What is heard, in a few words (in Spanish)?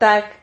"tag", Silabas